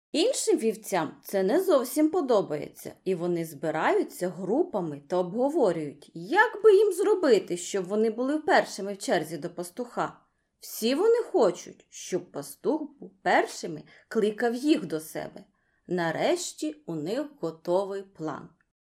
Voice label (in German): ukrainisch